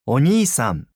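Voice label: "oniisan", Japanese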